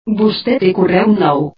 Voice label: Mail Catalan